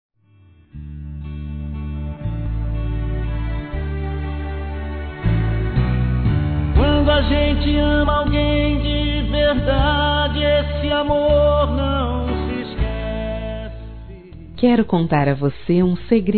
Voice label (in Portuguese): Romântica suave; Telemensagens; Saudades